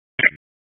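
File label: Police Northwest District Tac, radio; Raleigh; Tac 1; wake; p25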